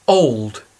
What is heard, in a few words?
"old", English